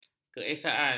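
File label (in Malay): "keesaan", Malay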